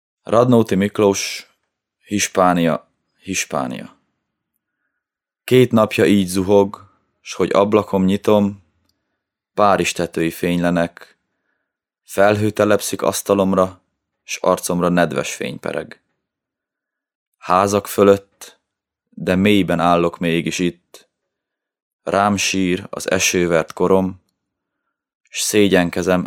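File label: hungarian male narration; voice demos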